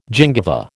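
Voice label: "gingiva", American English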